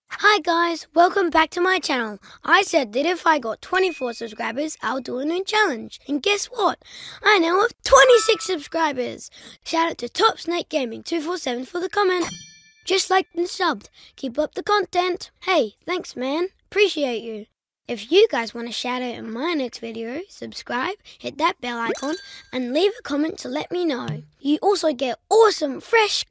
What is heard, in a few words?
child; MCA Voices compilation